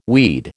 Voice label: "weed", American